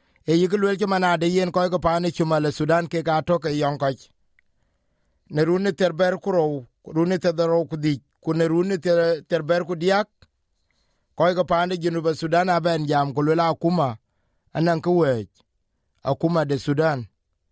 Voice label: dinka, podcast; South Sudanese High Court acquitted former Governor Kuel Aguer Kuel; SBS Dinka